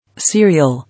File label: "Serial", English